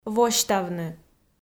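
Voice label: "восьтавны", Komi, female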